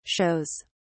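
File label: "shows", British English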